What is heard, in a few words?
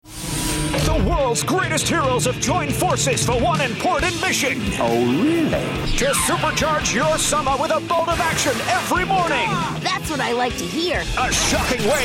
promo; CITV Action Stations